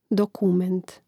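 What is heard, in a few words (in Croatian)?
"dokument", Hrvatski; mreznik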